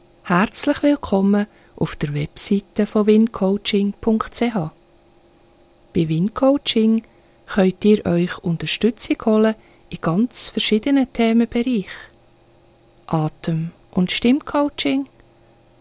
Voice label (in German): Begruessung auf Website